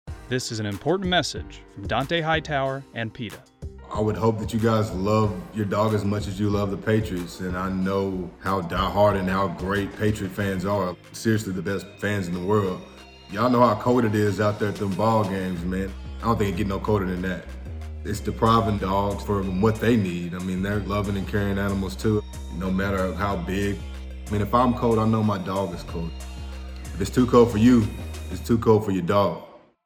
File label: radio spot; donta hightower